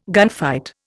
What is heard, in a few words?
"gunfight", Indian